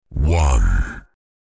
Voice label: Deep male voice "one"